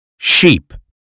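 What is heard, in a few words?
"sheep", American English; 英语音标发音（剑桥版）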